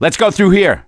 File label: misc directional, voice; gambler